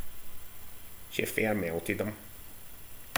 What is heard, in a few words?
"melted", slang; Ah huvnae seen ye aboot